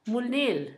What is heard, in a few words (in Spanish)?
molenillo, Zapoteco, Asunción Ocotlan; Objetos; INALI